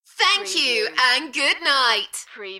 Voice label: UK female Thank you and good night Enthusiastic; beanstalk audio